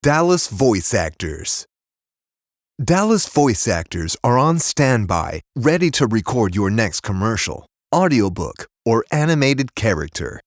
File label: Voice Actors Voiceover; Dallas; DFW